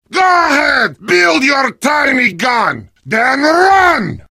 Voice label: heavy taunts; video games; source engine; tf